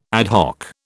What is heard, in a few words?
"ad hoc", British